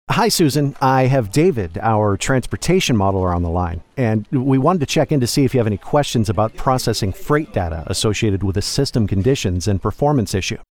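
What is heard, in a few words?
e-learning Conversational Co-Worker, American Male Voice; e-learning Voiceover Demo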